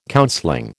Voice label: "counseling", American

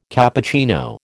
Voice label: "Cappuccino", American

